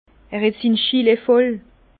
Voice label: "schillee", Bas Rhin; vin

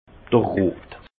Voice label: "rot", alsace; vin; conseil

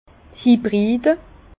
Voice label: "hybride", Bas Rhin

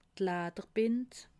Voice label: "ladderbind", alsace

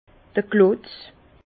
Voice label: "klotz", Bas Rhin; vin; chapeau

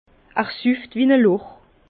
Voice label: "loch", Bas Rhin; vin

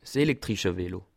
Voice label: "elektrische", alsace

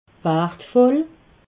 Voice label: "wartvoll", alsace

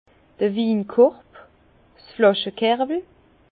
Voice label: "winkorb", Bas Rhin; vin; panier à vin

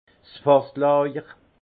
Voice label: "fasslajer", alsace; vin; socle

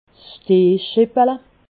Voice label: "stehscheppele", Alsace

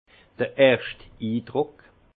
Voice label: "erscht indruck", alsace; vin; première impression

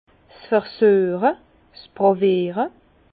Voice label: "versueche", Bas Rhin; vin; dégustation

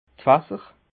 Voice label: "fasser", alsace

